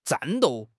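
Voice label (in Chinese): "战斗", Chinese; HSK6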